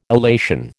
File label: "illation", American